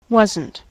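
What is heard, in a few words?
"wasn't", American English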